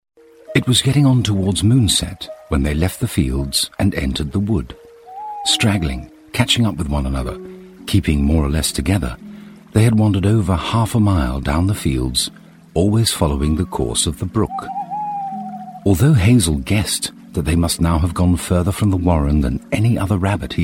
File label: Narration; Watership down